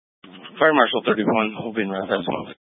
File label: Fire Dispatch